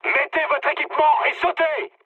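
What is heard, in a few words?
French bailout mood high; War Thunder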